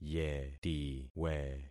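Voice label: "yetiwe", concatenated syllables; Affixing experiment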